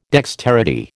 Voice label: "dexterity", American